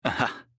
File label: Rune Emote Positive